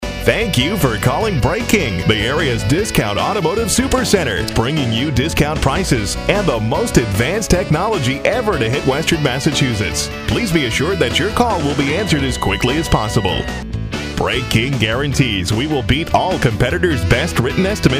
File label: Voicemail